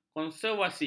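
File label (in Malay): "konservasi", Malay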